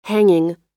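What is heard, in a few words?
"hanging", American English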